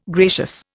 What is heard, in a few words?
"gracious", Indian